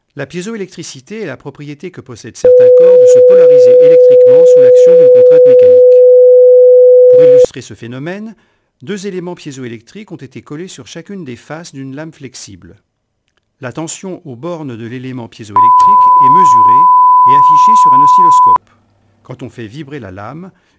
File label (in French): discours bruite; STI2D